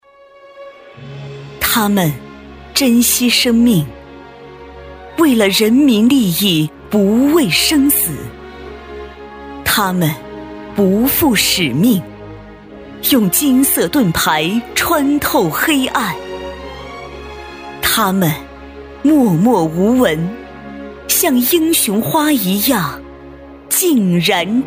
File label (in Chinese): 女 大气专题 前面大气 后面抒情